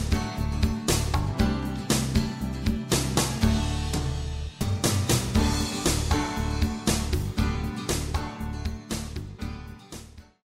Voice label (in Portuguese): gravacao de ura menu de atendimento; colegio positivo joinville